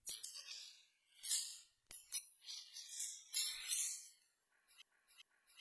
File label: Boiler male hello